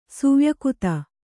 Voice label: "ಸುವ್ಯಕುತ", kannada